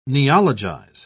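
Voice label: "neologize", British English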